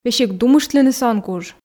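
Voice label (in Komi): "весиг думыштлынысӧ он куж", Komi, female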